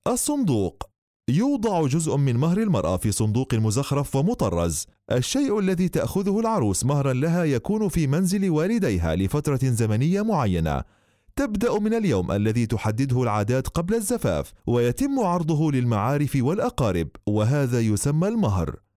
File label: arabic